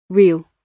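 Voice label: "real", British English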